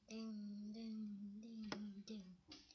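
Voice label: "ding", spoken word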